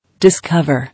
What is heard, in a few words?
"Discover", English